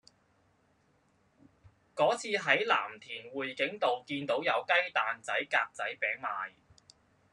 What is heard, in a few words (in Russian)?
Гонконгский; commonvoice